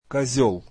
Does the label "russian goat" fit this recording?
Yes